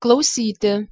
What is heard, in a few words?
"klausyti", Lithuanian